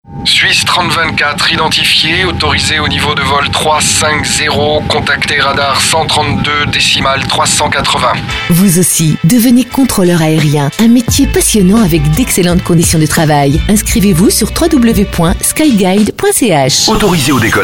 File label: radiopub spot